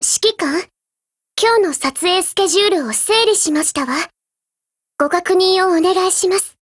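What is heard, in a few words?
HELLO, Japanese; GF Alarm; Desert Eagle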